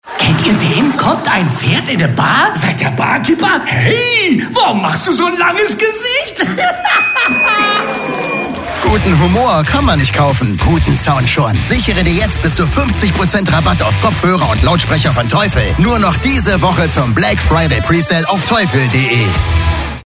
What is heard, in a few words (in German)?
radiowerbung pferd; teufel